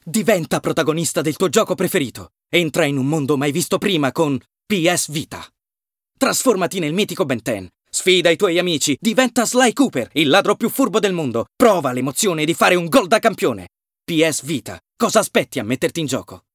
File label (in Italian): Spot Radio, ITALIAN MALE; radio commercial